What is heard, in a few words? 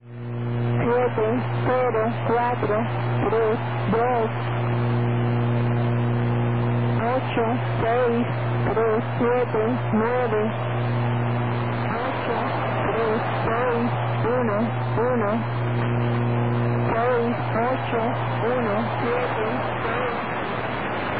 Spanish numbers 6770 kHz